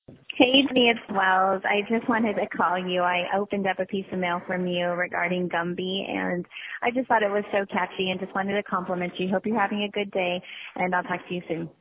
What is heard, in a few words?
voicemail